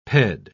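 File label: "ped-", English, British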